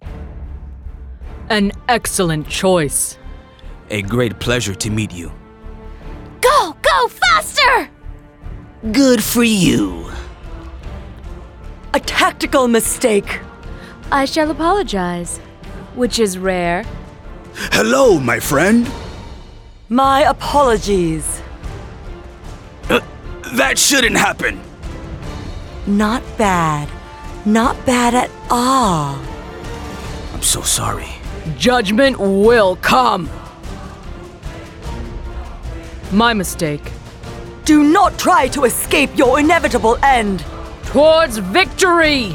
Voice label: 英语试听; 北京猎豹

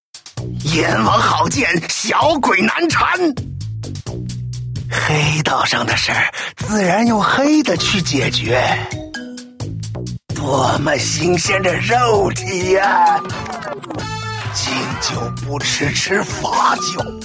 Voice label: nan qita

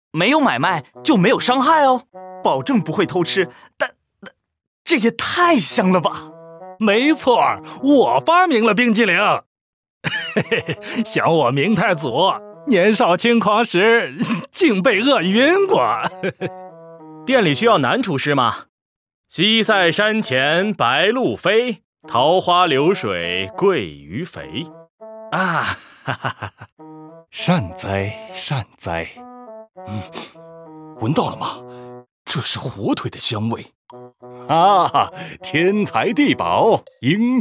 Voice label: 男-厨房

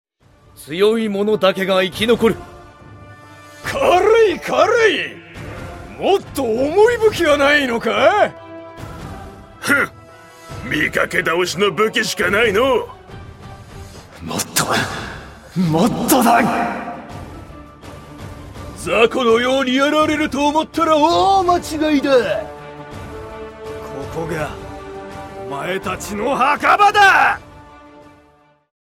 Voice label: 大叔壮汉

